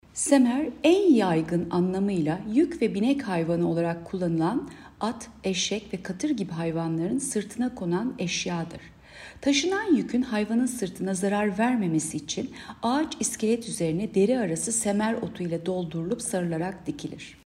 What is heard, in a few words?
turkce